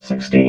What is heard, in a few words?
"sixteen", robot